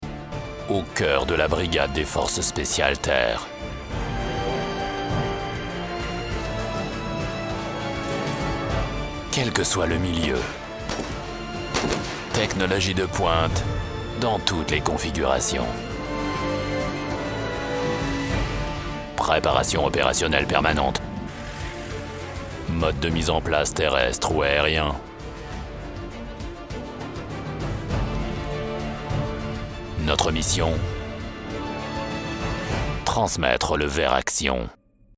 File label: french movie trailers